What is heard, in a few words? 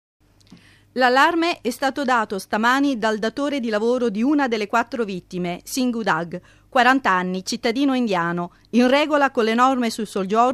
narration